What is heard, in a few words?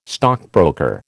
"stockbroker", American